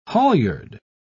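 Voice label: "halyard", British English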